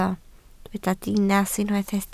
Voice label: "dinas", Welsh